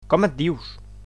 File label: "name", Catalan; Com et dius?